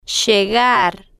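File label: "llegar", spanish, slow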